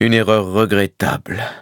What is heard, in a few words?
defeat, fr; cookie run